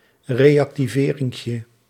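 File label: "reactiverinkje", Dutch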